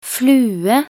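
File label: "flue", Norwegian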